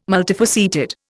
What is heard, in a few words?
"multifaceted", Indian